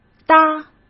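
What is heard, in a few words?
"搭" Mandarin Chinese; hsk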